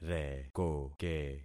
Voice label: "rekoke", concat syllables; Affixing experiment

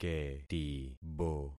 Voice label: "ketibo", concat syllables; Affixing experiment

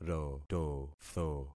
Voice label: "rototho", concat syllables; Affixing experiment

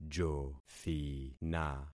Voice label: "jothina", concat syllables; Affixing experiment

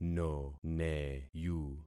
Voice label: "noneyu", concat syllables; Affixing experiment

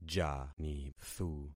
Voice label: "janithu", concat syllables; Affixing experiment

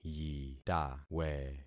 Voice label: "yitawe", concat syllables; Affixing experiment

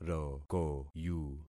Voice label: "rokoyu", concat syllables; Affixing experiment

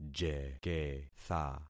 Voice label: "jeketha", concat syllables; Affixing experiment

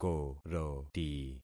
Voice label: "koroti", concat syllables; Affixing experiment

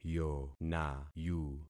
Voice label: "yonayu", concat syllables; Affixing experiment

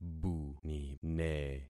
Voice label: "bunine", concat syllables; Affixing experiment

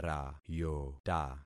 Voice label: "rayota", concat syllables; Affixing experiment

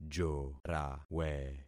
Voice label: "jorawe", concat syllables; Affixing experiment

